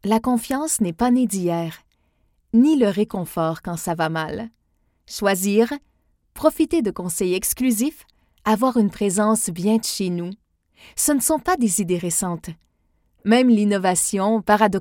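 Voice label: Canadian French, Commercial; GoLocalise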